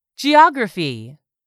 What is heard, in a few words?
"GEOGRAPHY", english; 3000 words